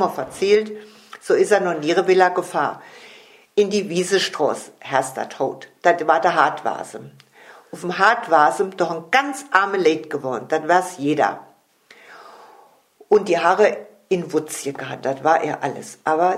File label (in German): nebenerwerb hausschlachtung, O-Ton Hunsrück, Bärenbach (Hunsrück)